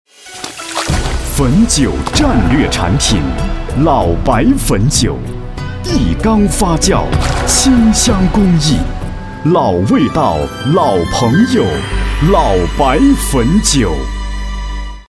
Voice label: 男 酒类; 汾酒

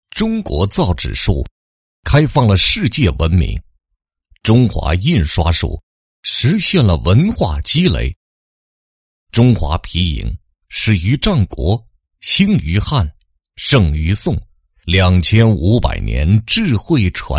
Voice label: 男 公益宣传 中国造纸术宣传

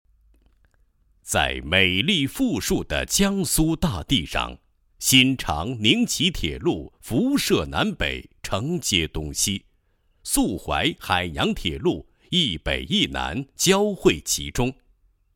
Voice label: 男, 企业党建 党建工作纪实专题片解说配音; 新长工务段

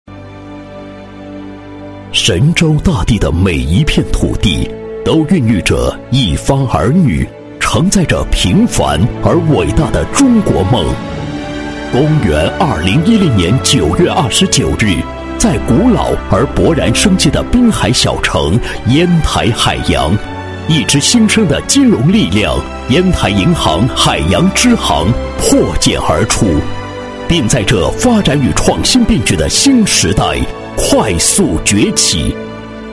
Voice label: 男 专题 银行 大气雄浑, 国语男声配音

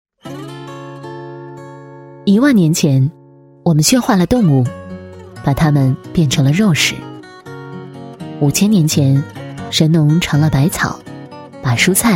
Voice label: 女4号 形象片, 国语女声配音

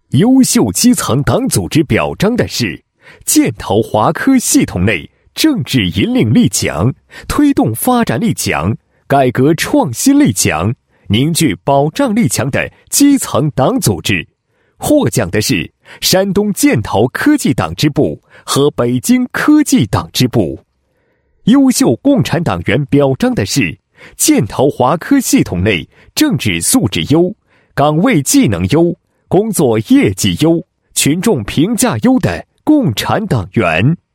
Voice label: 男 颁奖 视频脚本; 颁奖过程视频脚本

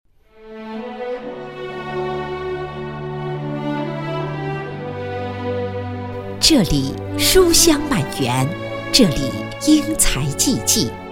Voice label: 女 专题 学校宣传片; 东胜第五小学

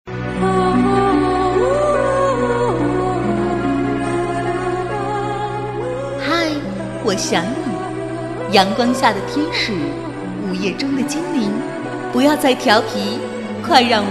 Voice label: 女 网络台宣 网络电台; 爱淘网络电台

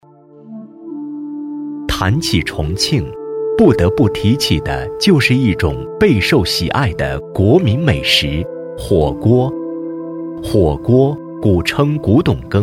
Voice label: 男, 舌尖风; 重庆火锅介绍

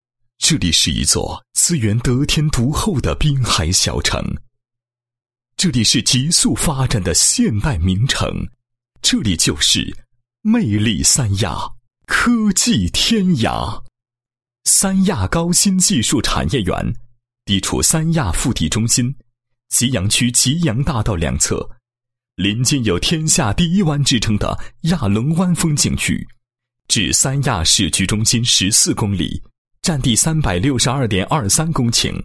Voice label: 男-宣传片; 三亚科技产业园招商宣传片

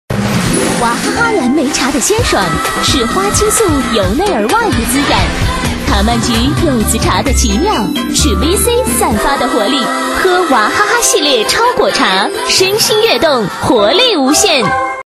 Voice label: 女4号 广告 饮品类 年轻时尚, 国语女声配音

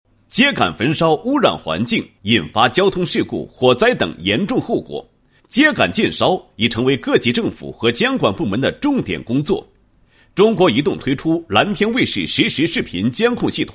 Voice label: 男 公益 宣传, 配音; 焚烧秸秆危害预警宣传

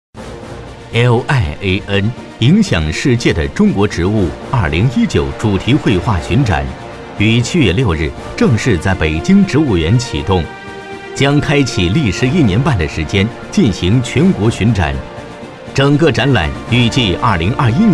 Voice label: 专题, 大气; 主题绘画

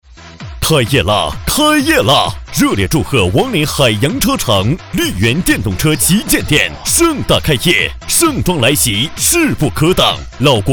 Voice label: 男, 激情叫卖广告; 绿源电动车

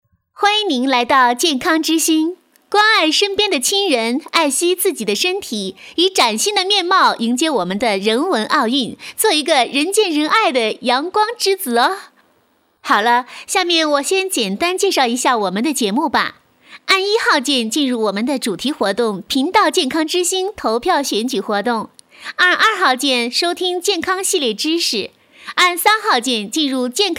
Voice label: 女, 广告, 国语女声; 健康之星

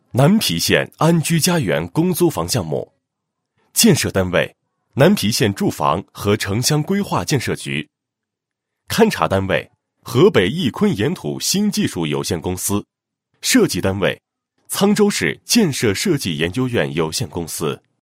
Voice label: 男, 项目; 南皮县安居家园公租房项目

